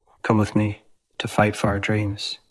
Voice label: Voice Acting, es